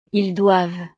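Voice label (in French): "ils doivent", French; Ellos deben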